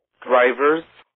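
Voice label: "drivers", US English; pronunciation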